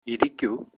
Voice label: sit down, Malayalam

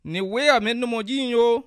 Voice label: have a nice day, bassa

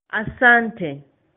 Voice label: thanks, swahili

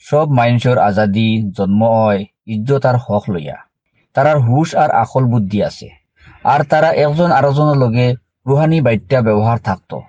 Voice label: Sylheti

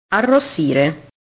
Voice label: "arrossire", italian

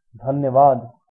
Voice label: thanks, hindi

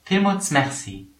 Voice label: thanks, luxembourgish

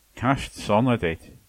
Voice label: easter, manx

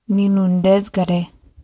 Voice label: i'm hungry, ojibwe

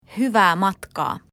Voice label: bon voyage, finnish

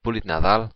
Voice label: christmas, occitan

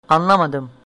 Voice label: no understand, turkish

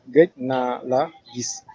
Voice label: longtimenosee, wolof

